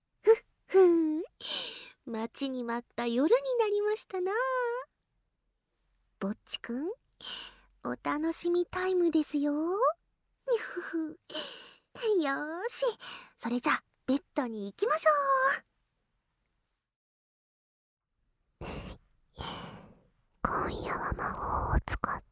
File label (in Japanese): 反転, ASMR